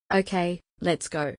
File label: female